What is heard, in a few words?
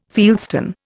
"fieldstone", Indian